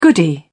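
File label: "goody", British English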